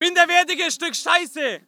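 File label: voice german infantry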